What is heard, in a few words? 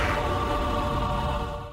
baaaaaaaaaaaaaaaan